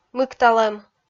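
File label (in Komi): "мыкталӧм", Komi, female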